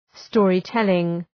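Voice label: "storytelling", English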